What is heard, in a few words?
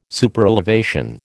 "Superelevation", American